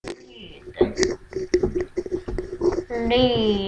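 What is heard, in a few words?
"lead", spoken word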